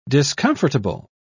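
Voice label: "discomfortable", English, British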